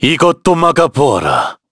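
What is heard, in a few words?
Vox Skill, Korean; Shakmeh; kings raid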